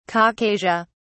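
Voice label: "caucasia", British English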